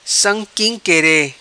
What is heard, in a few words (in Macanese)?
Sam quim quere, Macanese